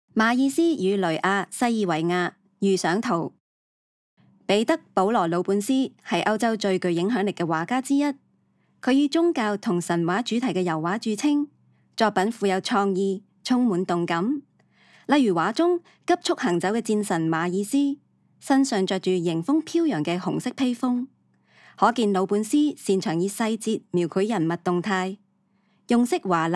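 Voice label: Cantonese, audio guide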